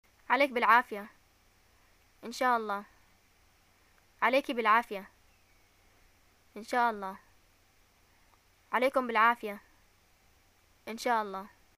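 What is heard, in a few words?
"greeting new thing", Yemeni Arabic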